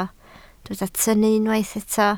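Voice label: "tynnu" Welsh, spoken word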